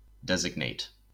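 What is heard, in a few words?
"designate", American English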